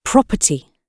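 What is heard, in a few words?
"property", British English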